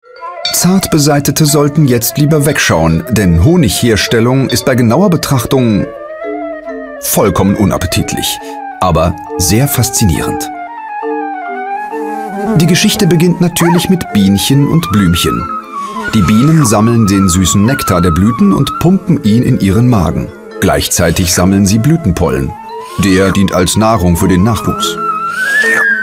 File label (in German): Doku; arte xenius honig